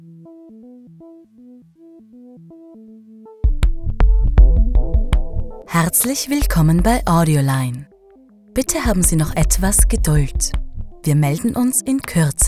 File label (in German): Anrufbeantworter